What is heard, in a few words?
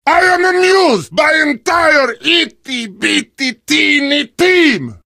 heavy taunts; video games; source engine; tf